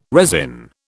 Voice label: "resin", British

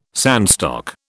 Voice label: "sandstock", British

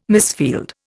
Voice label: "misfield", Indian

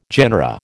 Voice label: "genera", American

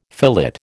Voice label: "fillet", American

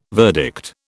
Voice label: "verdict", British

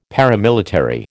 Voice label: "paramilitary", American

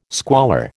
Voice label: "squalor", American

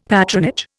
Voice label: "patronage", Indian; Dictionary

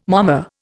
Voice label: "momma", Indian

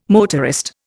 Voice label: "motorist", Indian

